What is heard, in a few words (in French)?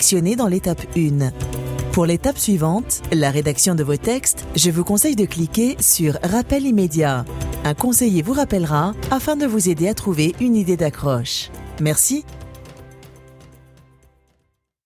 Message d accueil; Studiocall